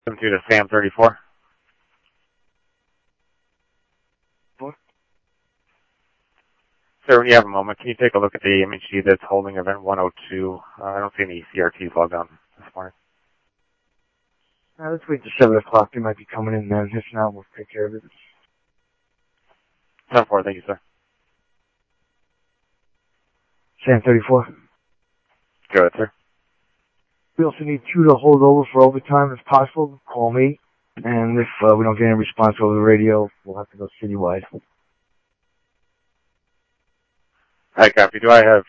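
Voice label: Mesa Police Department, scanner audio trunk; Mesa Simulcast; MESA PD 2 Centrl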